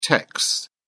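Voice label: "techs", British English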